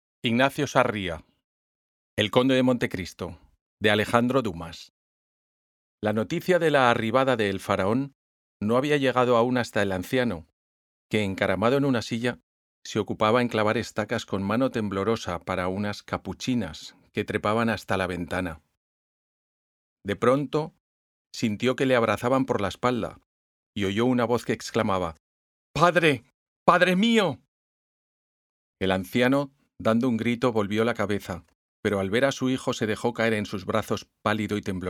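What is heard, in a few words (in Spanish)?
Audiolibro, voces en espanol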